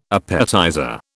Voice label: "appetizer", British